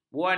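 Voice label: "buana", Malay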